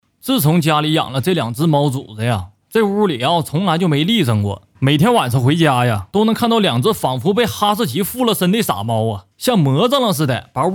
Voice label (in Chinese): 东北话 自媒体; 吸尘器